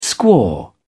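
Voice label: "squaw", British English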